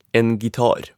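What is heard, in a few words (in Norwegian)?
"en gitar", Norwegian; = a guitar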